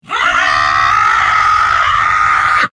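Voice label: soldier pain critical death reversed; tf2; mvm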